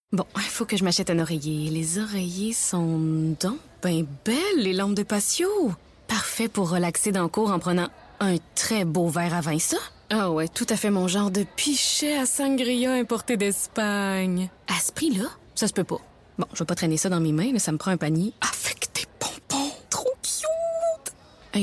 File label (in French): voix personnages